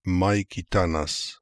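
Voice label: "Maikitanas", pronunciations; Fourth Way; Beelzebub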